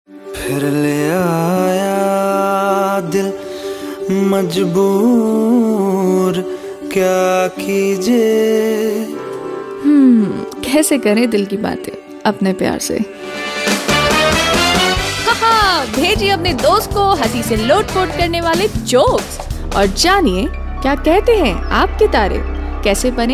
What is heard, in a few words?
hindi sample, female voice